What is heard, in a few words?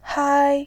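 "hai", Mandarin Chinese, first tone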